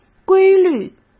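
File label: "规律", Mandarin Chinese; chinese-flashcards; hsk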